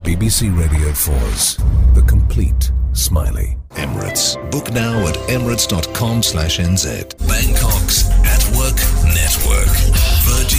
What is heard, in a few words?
Promo